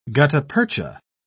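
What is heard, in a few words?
"gutta-percha", British English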